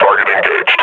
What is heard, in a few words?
announce attack, combine grunt